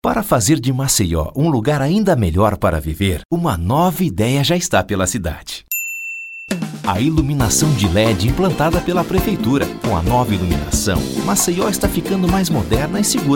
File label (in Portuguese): Spot; Ilumina Maceió